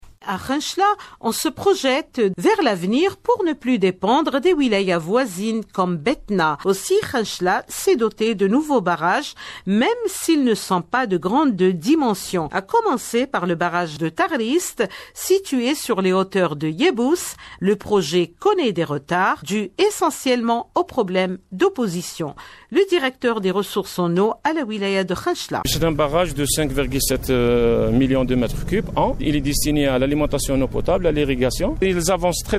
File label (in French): reportage; kenchla